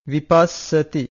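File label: "vipassati", Pali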